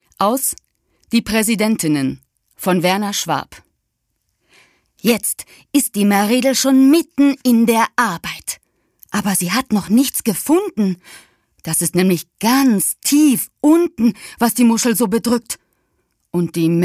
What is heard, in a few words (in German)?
Theater